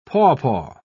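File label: "papaw", British English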